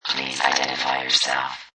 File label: Robot Voice "Please Identify Yourself"; Robot Sound Effects